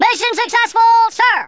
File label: revenge, Drill Sergeant; Worms Armageddon